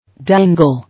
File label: "Dangle", English